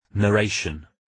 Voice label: narration